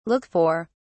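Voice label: "look for", British English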